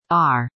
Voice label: "R", female